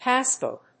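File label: "passbook", English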